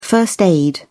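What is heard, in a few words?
"first aid", British English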